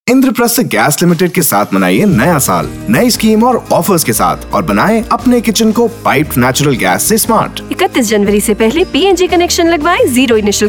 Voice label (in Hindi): hindi promo